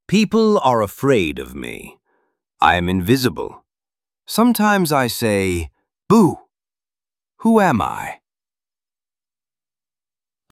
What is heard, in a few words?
ghost, HALLOWEEN MYSTERY VOICES